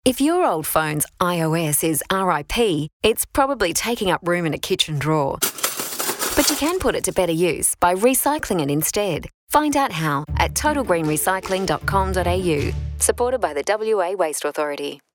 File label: radio ad